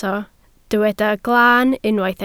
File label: "glaan", Welsh